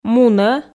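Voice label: "мунӧ", Komi, female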